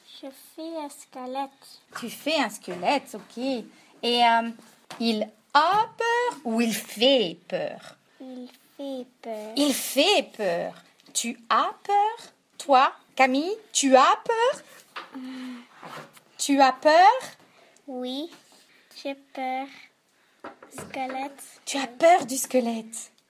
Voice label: conversation